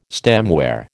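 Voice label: "stemware", American English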